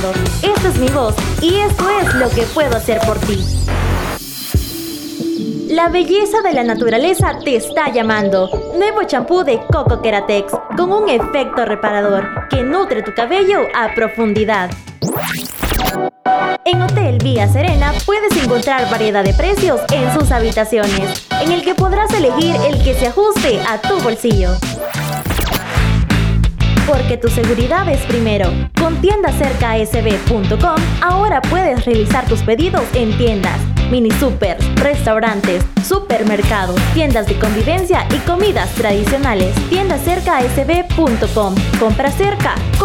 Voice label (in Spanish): voz marketing